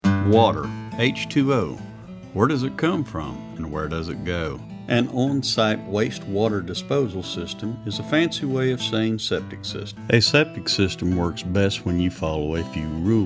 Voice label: Male, PSA; Septic